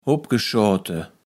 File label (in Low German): "opgeschoote", kaevels platt